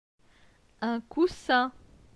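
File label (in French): "un coussin", French; phonetique; les consonnes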